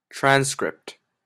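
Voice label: "transcript", Canadian English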